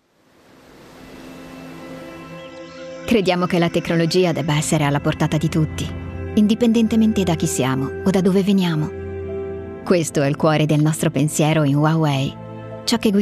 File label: COMMERCIAL YOUNG ITALIAN